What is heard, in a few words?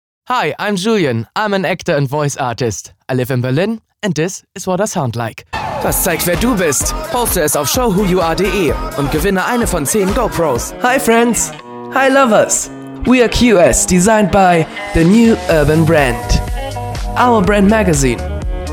english